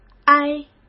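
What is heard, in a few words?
"挨", Mandarin Chinese; chinese flashcards; hsk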